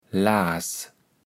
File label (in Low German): "Laass", kaevels platt